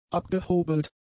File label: "abgehobelt", German; adjectives